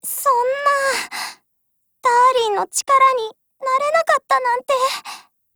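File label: RETREAT, Japanese; girlsfrontline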